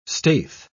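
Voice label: "staith", British English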